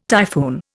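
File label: "typhoon", Indian English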